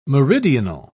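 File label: "meridional", British English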